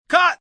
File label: Cut, director, male; Talking